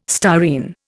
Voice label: "styrene", Indian